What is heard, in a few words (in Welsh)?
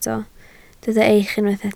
"eich", Welsh